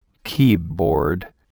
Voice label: "keyboard", vocabulary